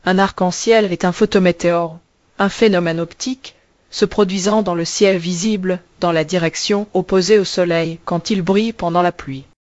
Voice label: speaker, fr FR; piper-voices

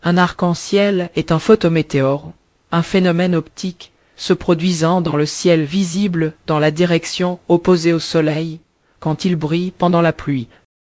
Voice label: speaker, fr FR; piper-voices

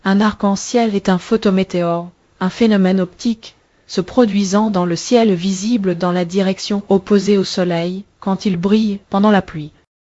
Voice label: speaker, fr FR; piper-voices

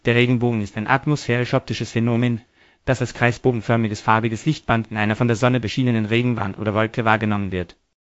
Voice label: speaker, de_DE; piper-voices

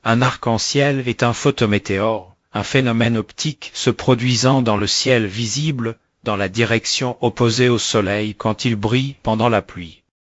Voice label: speaker, fr FR; piper-voices

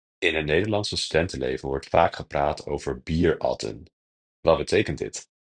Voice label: dutch prompt; aya expanse

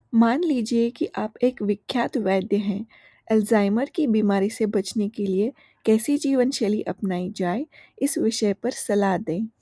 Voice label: hindi prompt; aya expanse

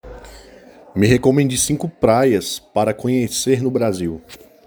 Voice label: portuguese prompt

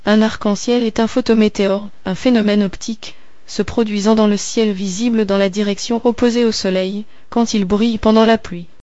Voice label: speaker, fr FR; piper-voices

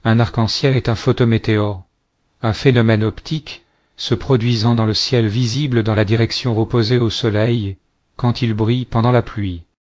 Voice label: speaker, fr FR; piper-voices

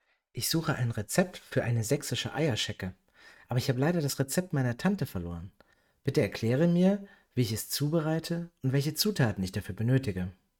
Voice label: german prompt; aya expanse

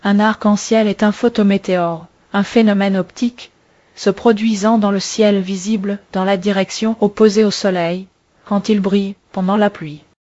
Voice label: speaker, fr FR; piper-voices

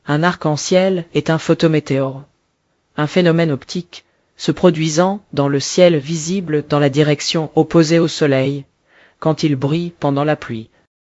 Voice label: speaker, fr FR; piper-voices

